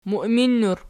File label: "muiminnur", Archi